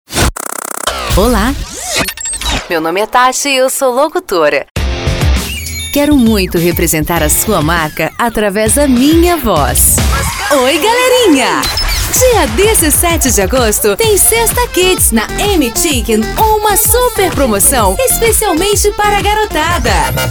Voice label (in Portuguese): FEMININA